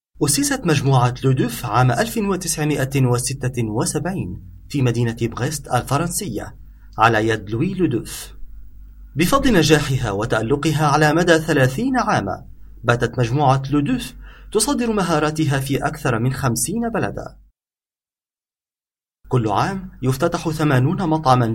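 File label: Arabic Corporate; GoLocalise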